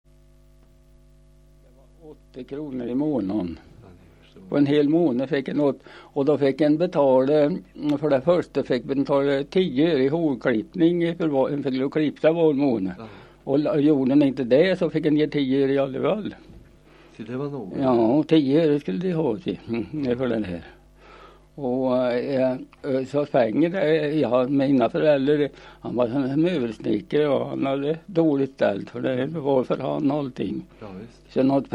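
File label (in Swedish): Björsäter Östergötland, dialekter; Folkminnen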